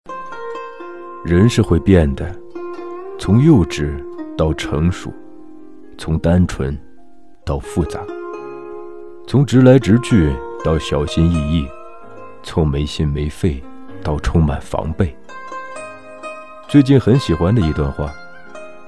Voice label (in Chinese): 旁白情感鸡汤电台; 可可托海